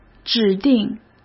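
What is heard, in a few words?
"指定", Mandarin Chinese; chinese-flashcards; hsk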